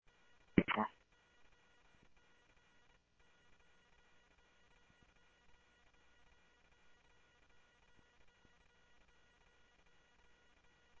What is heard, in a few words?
Police, scanner audio trunk; H-Scottsdale; SCTSDLE PD - PD2 ScottsdalePolice